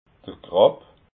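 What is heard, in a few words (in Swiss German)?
"krab", Alsatian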